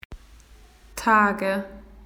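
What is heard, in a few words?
"days", Australian English; PictureDictionary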